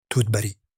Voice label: cranberry in arabic

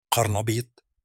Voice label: cauliflower in arabic

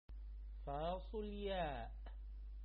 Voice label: Beans in arabic

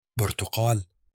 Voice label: Orange in arabic

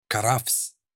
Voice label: celery in arabic

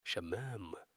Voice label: cantaloupe in arabic

